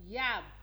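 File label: "yab", Nigerian Pidgin, female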